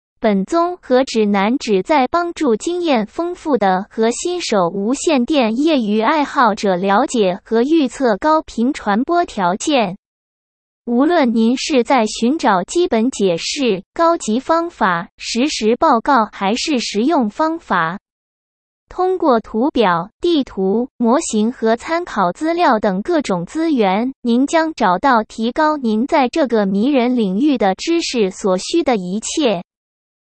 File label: Chinese